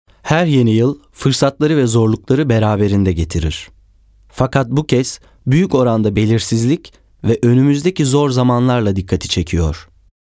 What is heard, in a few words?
turkish male